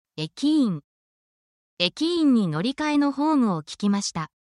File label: jp tango; whats your job learning japanese occupations